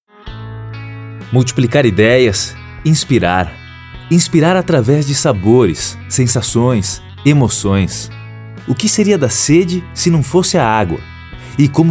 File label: emotional